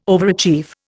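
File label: "overachieve", Indian English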